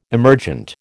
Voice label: "emergent", American

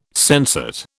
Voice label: "sensate", British

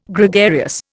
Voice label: "Gregarious", Indian

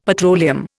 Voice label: "petroleum", Indian; Dictionary

